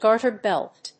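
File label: "garter belt", English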